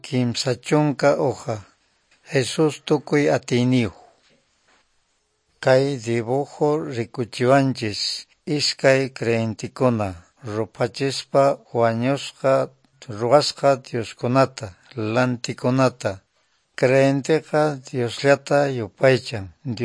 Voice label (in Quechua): good news quechua norte de bolivia apolo